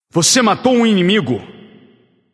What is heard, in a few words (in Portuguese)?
notify slain you enemy, vox caster portuguese